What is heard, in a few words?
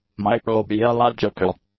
"microbiological", english; adjectives